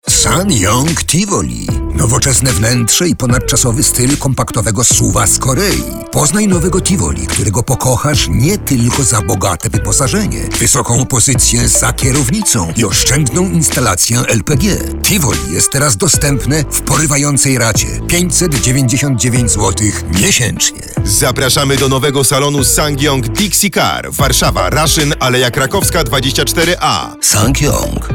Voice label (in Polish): reklama; ssangyong; tivoli kredyt